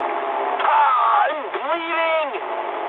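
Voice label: English damaged pilot mood low; speech; War Thunder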